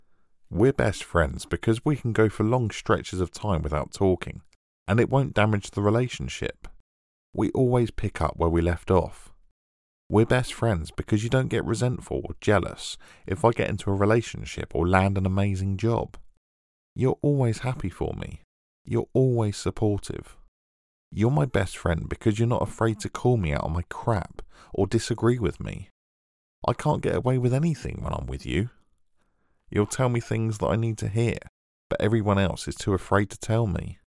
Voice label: Conversational